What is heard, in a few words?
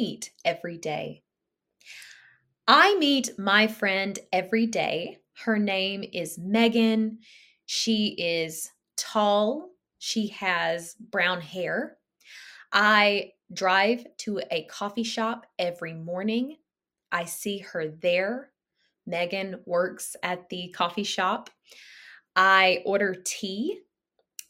conversation with accent from the USA; “Who do you meet everyday?”